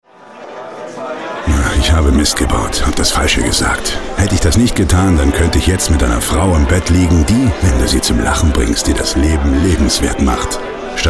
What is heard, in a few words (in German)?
Hoerspiel